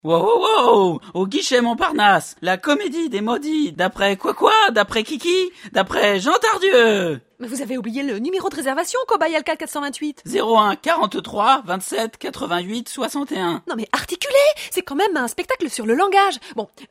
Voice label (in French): spot radio